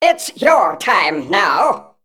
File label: Male Mech Play